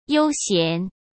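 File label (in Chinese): "悠闲", Mandarin Chinese; chinese-flashcards; hsk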